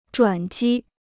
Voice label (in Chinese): "转机", Chinese